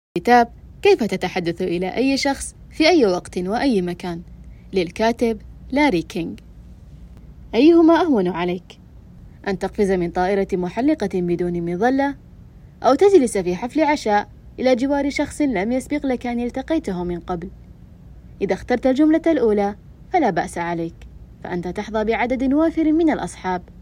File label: voiceover